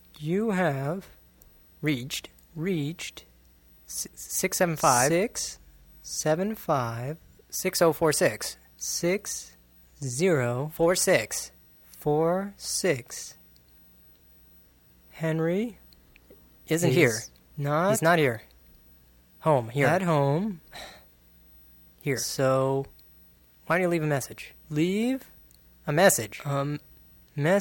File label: Slow Talkers